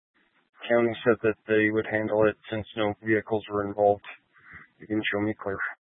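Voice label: Dispatch, Scanner audio; Troop 2D Dispatch (Huerfano, Pueblo, W. Las Animas)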